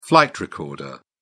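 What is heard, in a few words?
"flight recorder", British English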